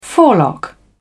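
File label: "forelock", British English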